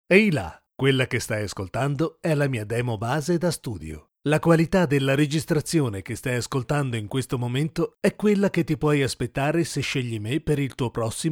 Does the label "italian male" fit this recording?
Yes